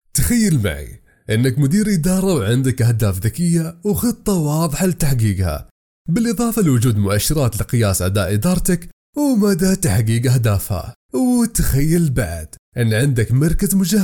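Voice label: Arabic, general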